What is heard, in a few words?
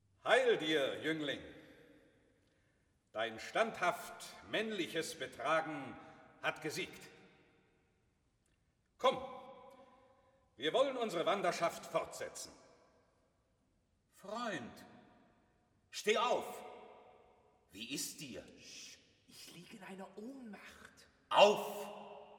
Dialog; Mozart, Wolfang Amadeus; Die Zauberflöte; Heil dir Jüngling